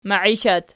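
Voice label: "maiishat" nominative, Archi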